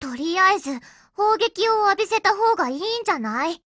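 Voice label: ATTACK, Japanese; Jatimatic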